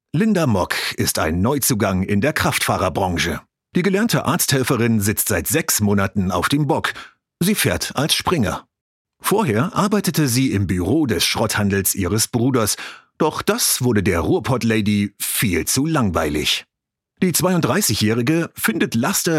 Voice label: german voice documentary

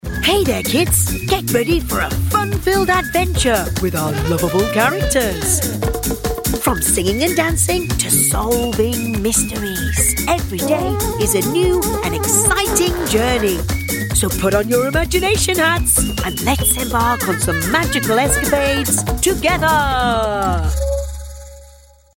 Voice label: character

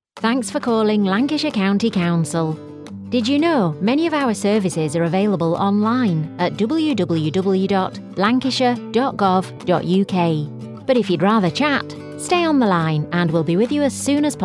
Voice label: ivr telephony

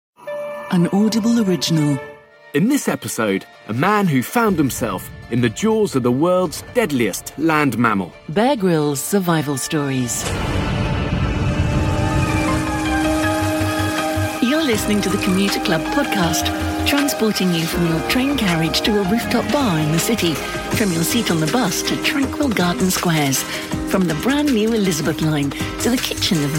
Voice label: podcasts

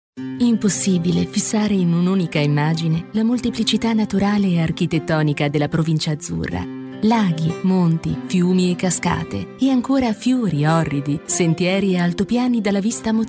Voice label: italian voiceover documentary

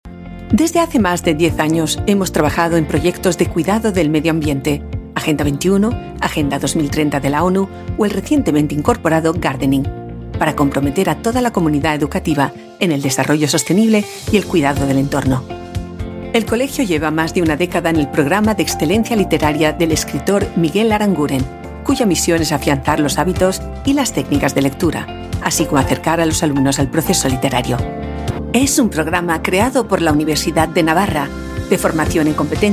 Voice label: european spanish documentary

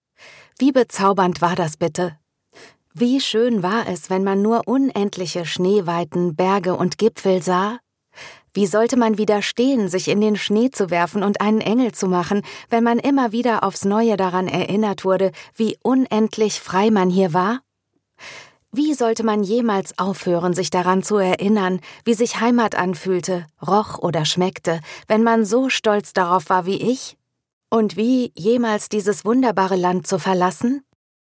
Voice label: German voice audiobook

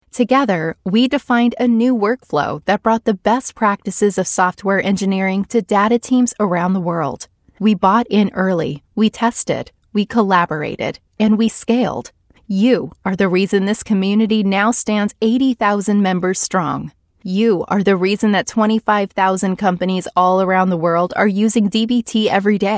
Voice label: american voice actor events

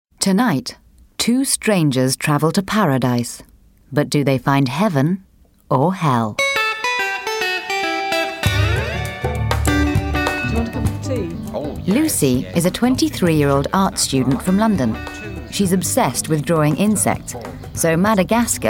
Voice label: documentary

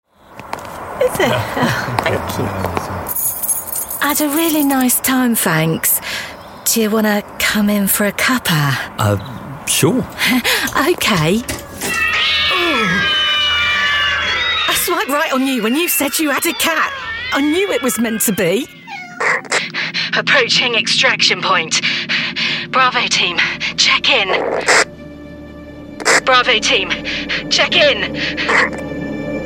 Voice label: character, Refined and Versatile Voiceover

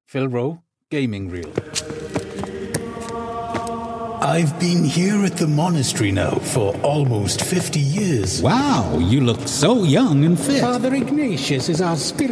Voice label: welsh videogame

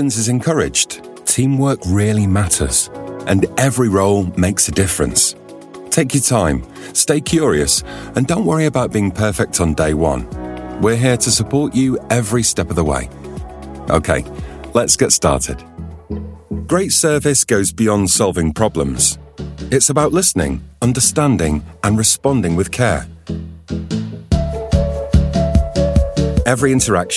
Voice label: training video

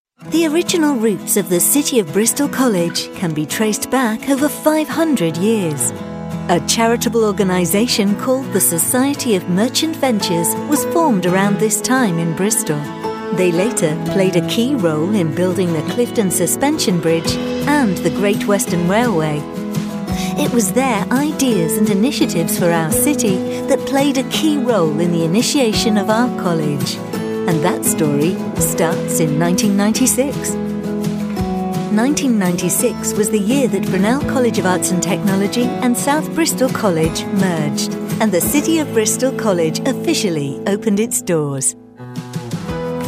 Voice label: corporate on-hold

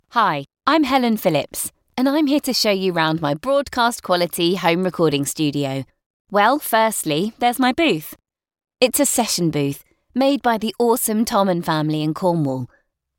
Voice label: natural

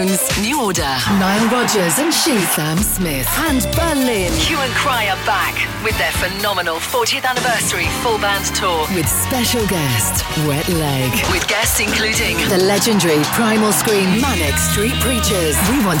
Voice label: live music ad

